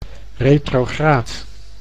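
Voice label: "retrograad", Dutch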